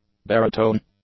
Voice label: "baritone", English; Substantiv; nouns

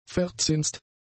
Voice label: "verzinst", German; adjectives

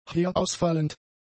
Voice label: "herausfallend", German; adjectives

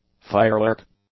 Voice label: "firework", English; nouns

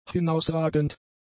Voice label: "hinausragend", German; adjectives

